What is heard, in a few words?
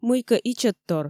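"мыйкӧ ичӧттор", Komi, female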